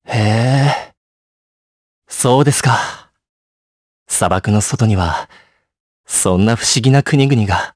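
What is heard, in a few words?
voice dia, voices heroes, Japanese; Zafir; kingsraid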